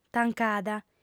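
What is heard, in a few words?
"tancada", female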